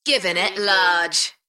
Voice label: UK female Givin it large; beanstalk audio